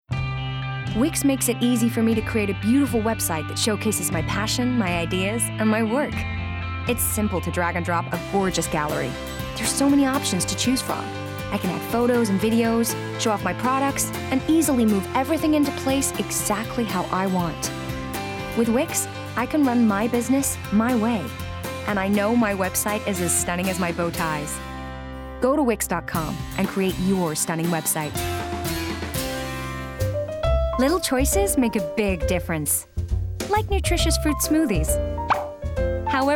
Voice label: Commercials US Montage